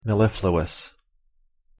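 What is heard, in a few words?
"mellifluous", English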